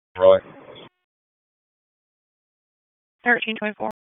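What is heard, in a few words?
Raleigh Police Southeast District Dispatch; wake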